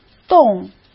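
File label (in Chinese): "动", Mandarin Chinese; hsk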